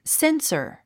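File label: "censure", English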